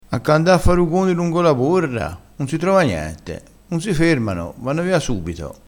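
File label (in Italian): "rugone", vocabolario dialettale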